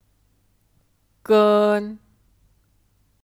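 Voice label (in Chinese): "跟" Chinese; Gēn; Kata sambung; Dengan; 我跟玛丽一起去图书馆 Wǒ gēn Mǎlì yìqǐ qù túshū guǎn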